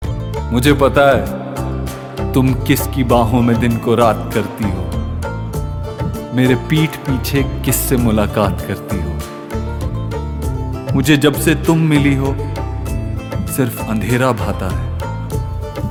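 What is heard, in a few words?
Dialogue Ringtone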